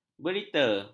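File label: "berita", Malay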